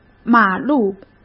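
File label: "马路", Mandarin Chinese; chinese flashcards; hsk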